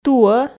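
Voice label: "туӧ", Komi, female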